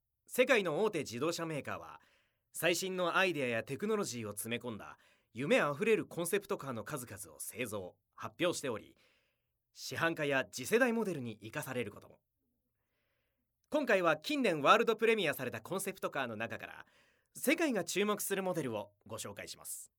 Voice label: narration